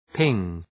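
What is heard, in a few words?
"ping", English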